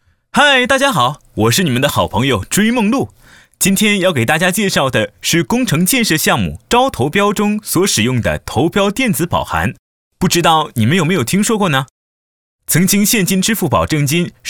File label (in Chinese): 动画 电子保函 轻快